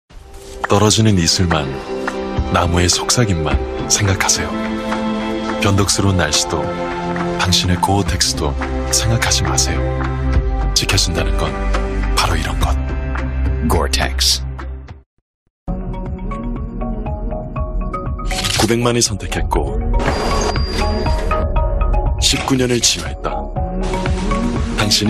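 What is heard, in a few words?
Korean, male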